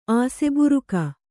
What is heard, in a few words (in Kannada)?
"ಆಸೆಬುರಕ", Kannada